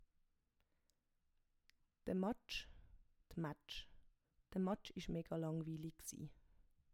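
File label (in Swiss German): "de Matsch", Swiss German; Wortschatz Kapitel 1; Kapitel 1 Hauptwortschatz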